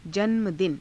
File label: "janma dina", Hindi